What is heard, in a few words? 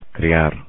"criar", Spanish; infinitive